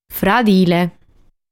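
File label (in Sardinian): "Fradile", Sardinian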